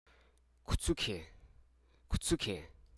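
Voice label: Hruso Aka; cascade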